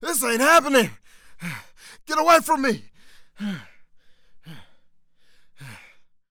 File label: Panic; zps; Humans; HumanCop